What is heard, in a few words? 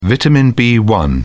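"vitamin b1", British English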